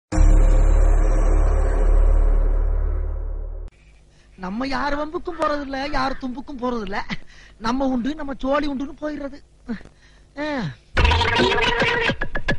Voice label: comedy dialogue; friends; vadivelu

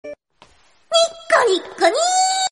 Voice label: girl sound korean

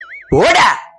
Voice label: "po da", sound funny notification

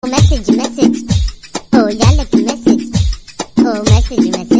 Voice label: funny message tone

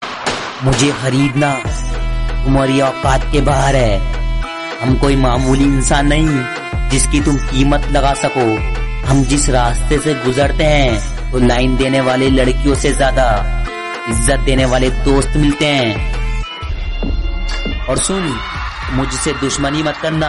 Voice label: attitude dialogues boys dialogue; rupinder gandhi

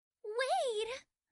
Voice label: wait anime girl notification

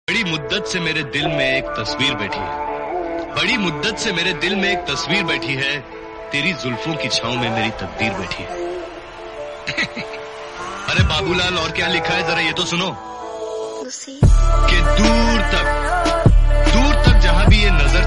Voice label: angry dialogue; sanjay dutt

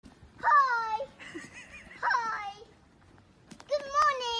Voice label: baby voice notification

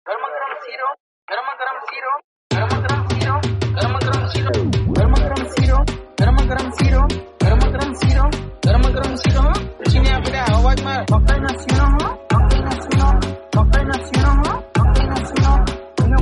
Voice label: dialogue beats gujarati

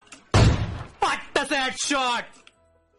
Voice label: headshot game dialogue

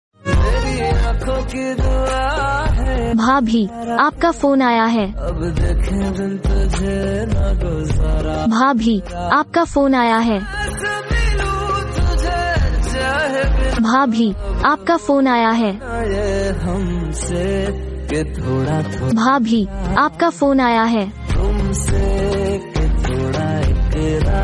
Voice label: phone call

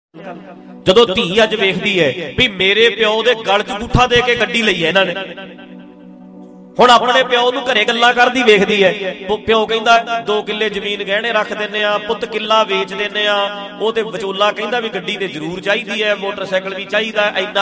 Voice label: punjabi dialogue; bhai ranjit singh chandan

